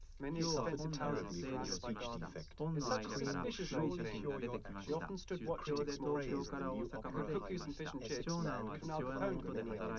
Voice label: male liverec mix